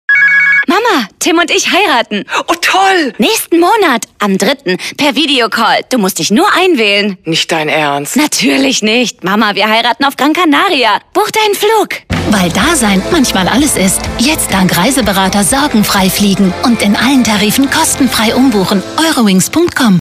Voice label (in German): Feststimme Funkspot OFF WERBLICH; Eurowings; Dasein